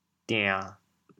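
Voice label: "dian1", Teochew, chaoyang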